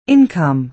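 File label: "income", American English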